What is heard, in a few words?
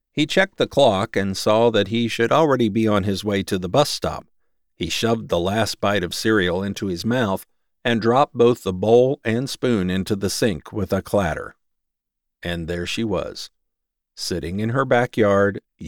Third Person Youth Fiction